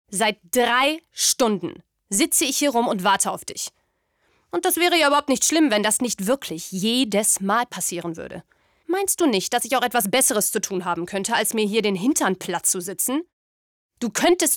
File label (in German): CASTING Synchron